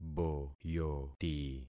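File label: "boyoti", concatenated syllables; Affixing experiment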